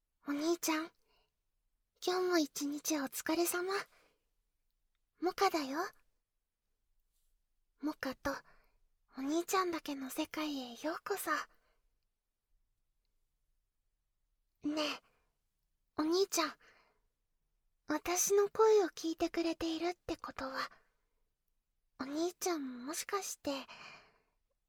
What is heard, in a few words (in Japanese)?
説明とリラックス, ASMR